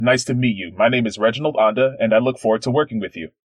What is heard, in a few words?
greeting recording; Voice-over Artist